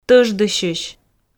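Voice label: "тӧждысьысь", Komi, female; audio dict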